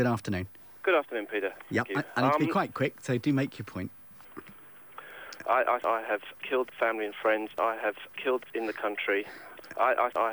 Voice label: A radio phone in, in which a sick minded woman declares her hatred for babies and Kosovans; Matt's Monitoring Station